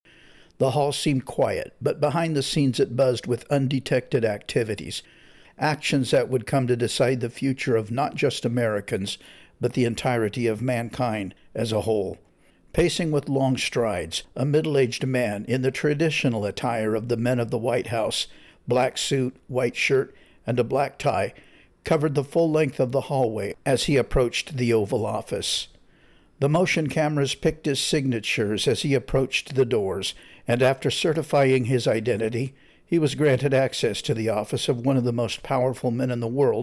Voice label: AudioBook Audition; Last Days of Freedom